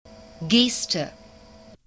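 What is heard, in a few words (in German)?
"Geste", German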